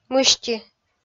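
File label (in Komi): "мысти", Komi, female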